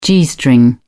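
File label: "g string", British English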